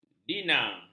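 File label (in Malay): "dina", Malay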